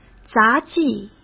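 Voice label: "杂技", Mandarin Chinese; hsk; chinese-flashcards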